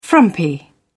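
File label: "frumpy", British English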